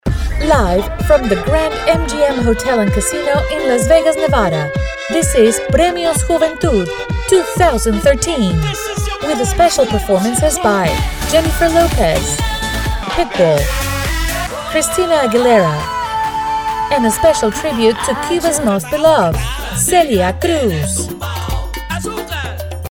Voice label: Upbeat

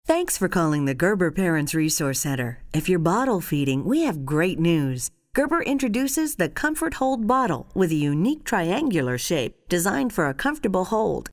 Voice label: informative

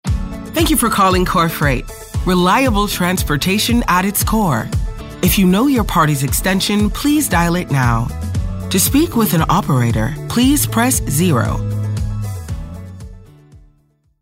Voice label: Phone Hold; friendly

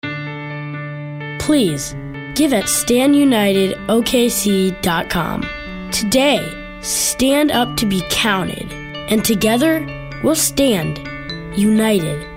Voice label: Caring

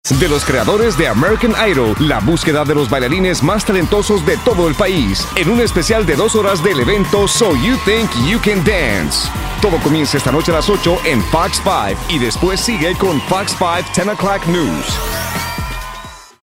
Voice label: TV Promo; FOX 5

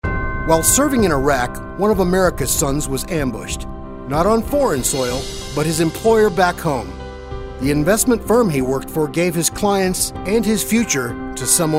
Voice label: authoritative

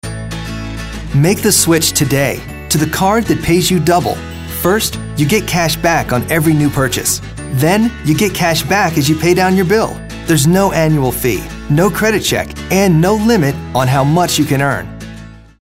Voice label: Friendly

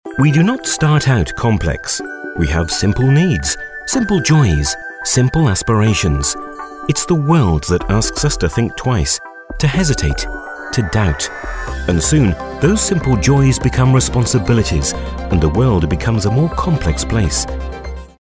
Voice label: british elegant genuine

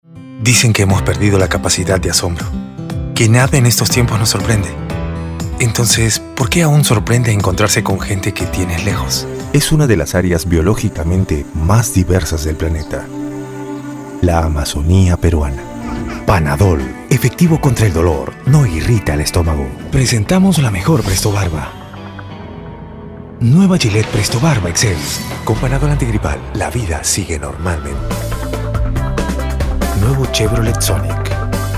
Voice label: Spanish, Soft Delivery

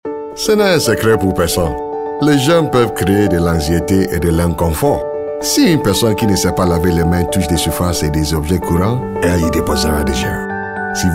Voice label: French

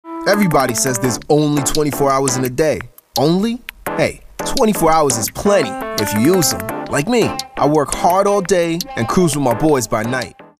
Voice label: youthful urban

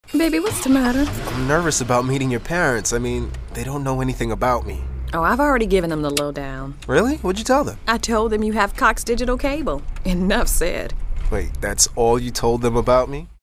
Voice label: guy next door

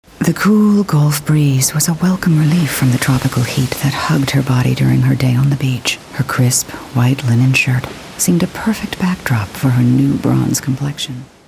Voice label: storytellers

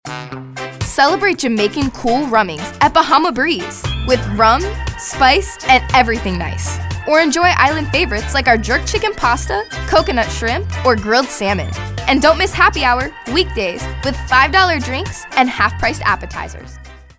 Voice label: upbeat

